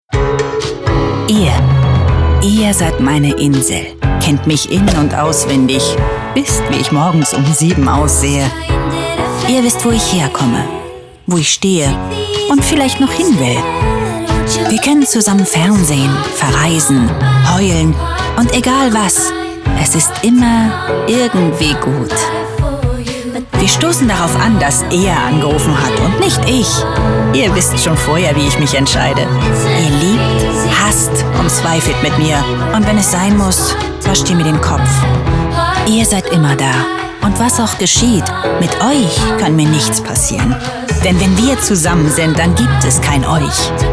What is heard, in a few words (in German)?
werbung, deutsch frauen